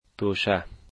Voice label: "luggage", Dari